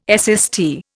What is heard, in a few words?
"SST", Indian